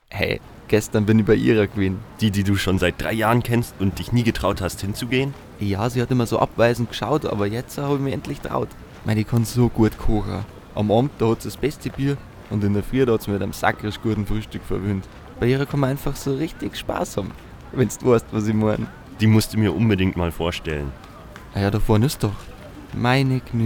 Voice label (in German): Werbung